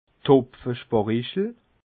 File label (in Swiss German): "hopfesparichle", alsace